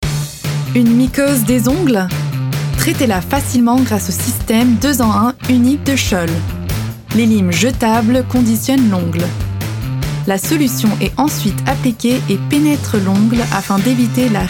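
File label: French, commercial; GoLocalise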